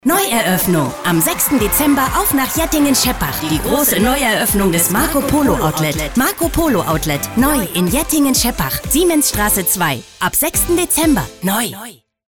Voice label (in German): Aufgeregt; Fremdtake